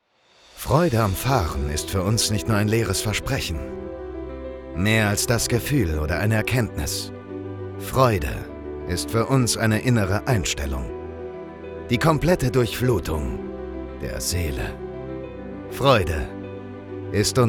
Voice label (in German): Imagefilm